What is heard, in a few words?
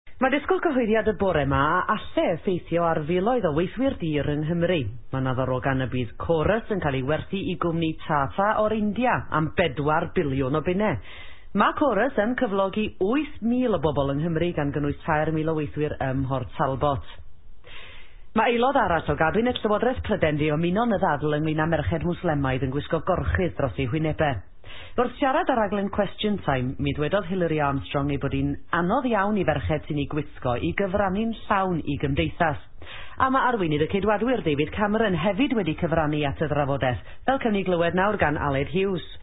welsh; celtic languages in britain